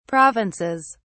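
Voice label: "provinces", British English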